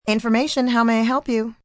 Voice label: Operator Info, Telephone operator, female

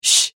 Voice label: "shh", female, whispering

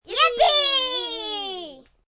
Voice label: Yippe Kids, small group of children; Talking

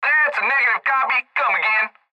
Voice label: Cb Trucker Radio; Radio Voice; Blastwave

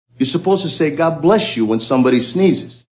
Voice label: Supposed To Say, Male; Talking Sound Effects; Sound Effects:Voice; VoiceBox

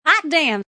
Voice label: Female Says Hot Damn; Talking

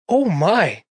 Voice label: Oh My, Male, Exclamation

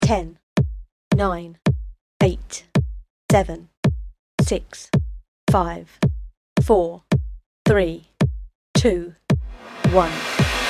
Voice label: Dj Countdown, UK Female Voice

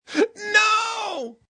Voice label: Gasp No Male, exclamation; Voice Box

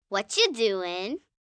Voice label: Whacha Doin, Questions, 1 girl 9 yrs.; Talking; VoiceBox

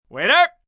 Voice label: "Waiter", male

